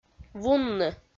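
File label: "вунны", Komi, female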